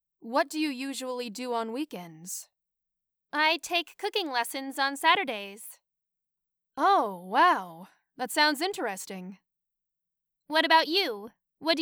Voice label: Dialogue Natural